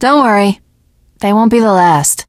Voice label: teengirl, voice; Left 4 Dead 2; l4d1 finale